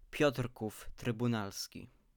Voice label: Piotrków Trybunalski pronounciation